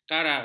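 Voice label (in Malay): "karar", Malay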